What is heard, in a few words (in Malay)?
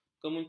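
"kemuncak", Malay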